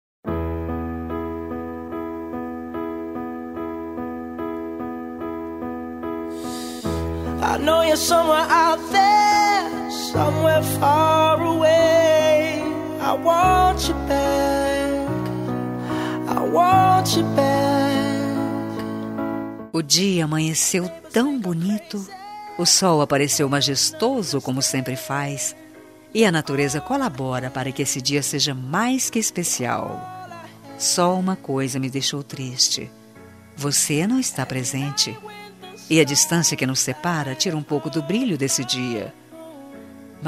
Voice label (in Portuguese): aniversario irma distante voz feminina; aniver irmaos